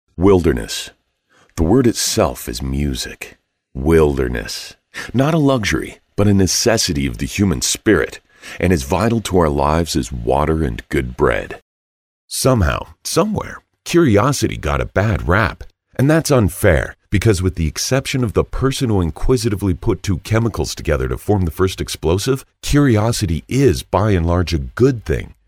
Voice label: Narrative Voiceover